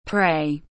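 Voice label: "pray", English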